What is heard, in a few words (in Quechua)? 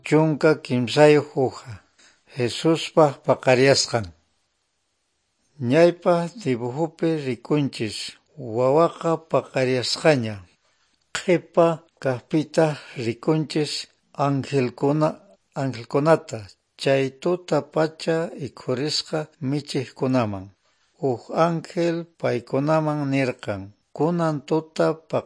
good news quechua norte de bolivia apolo